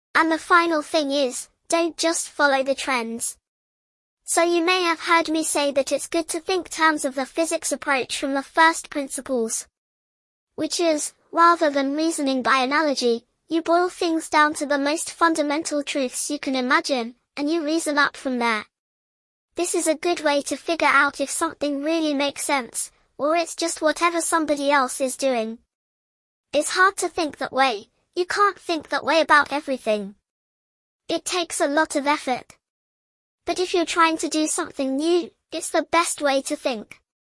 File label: child